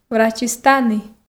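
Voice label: "врачыс тані", Komi, female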